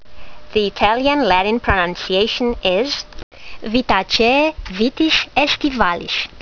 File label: latin; Vitis aestivalis